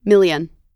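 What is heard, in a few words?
"million", American English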